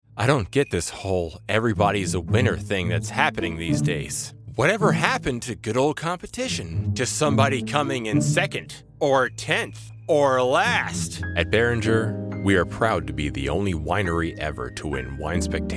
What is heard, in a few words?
Commercial, Male voices